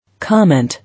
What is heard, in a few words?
"Comment", English